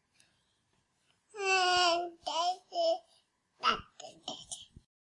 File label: baby say dadda